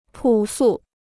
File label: "朴素", Chinese